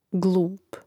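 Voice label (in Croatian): "glup", Croatian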